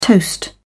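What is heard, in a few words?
"toast", British English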